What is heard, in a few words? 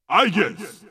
Unused Announcer; P4A; Aigis Alt